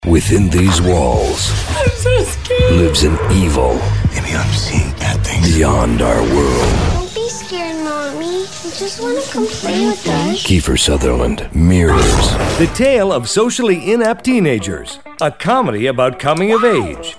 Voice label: Movie Trailer

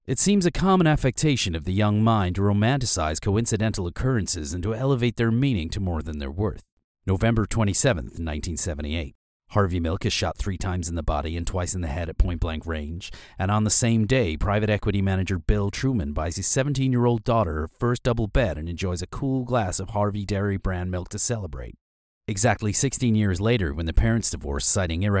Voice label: male English with dry American accent; Devine Voices

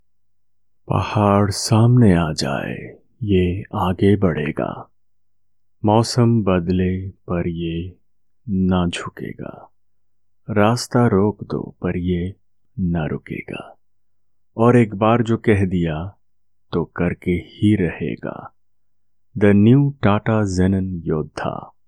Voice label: Hindi Commercial

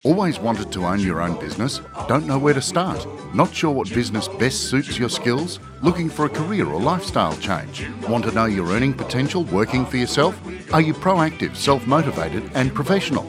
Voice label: Radio commercial

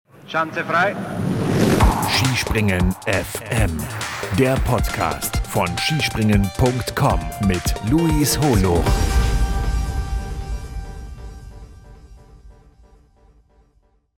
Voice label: Podcast Intro; SkispringenFM

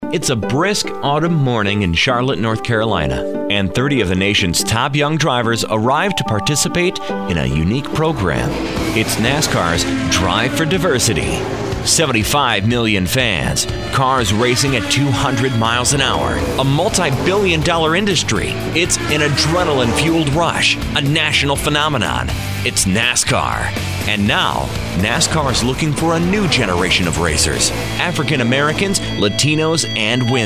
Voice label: Stage Narration

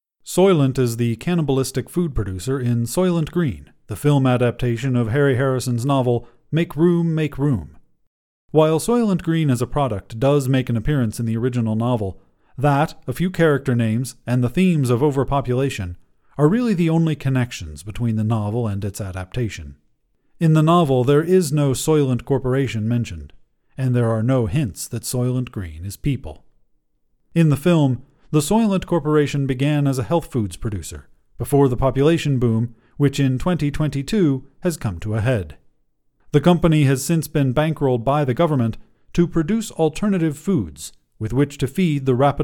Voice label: non-fiction narration

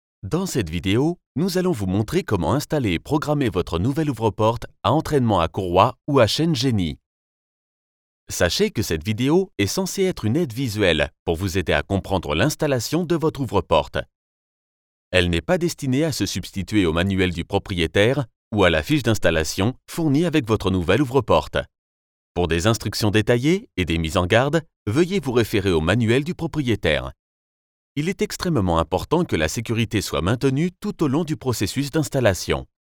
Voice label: French

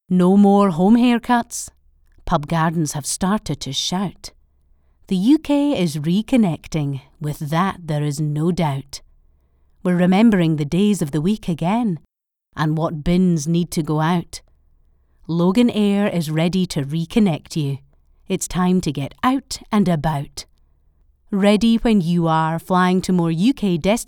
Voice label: commercial strong Scottish; Loganair; STV

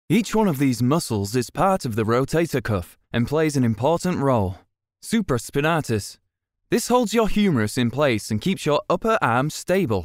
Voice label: e-learning

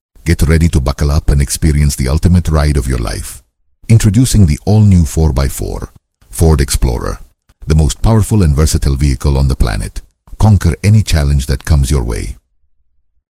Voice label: Voice Over; Ford Explorer

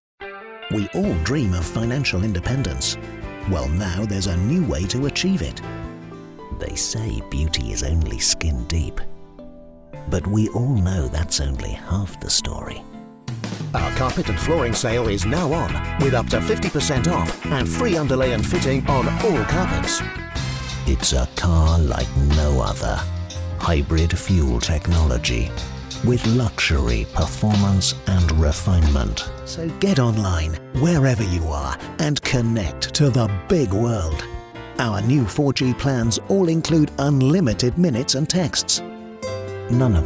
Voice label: commercial montage

